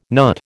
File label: "NUT", American